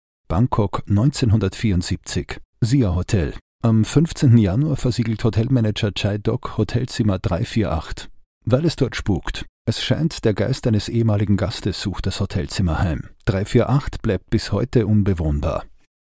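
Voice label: Austrian German Commercial, voice demos; GoLocalise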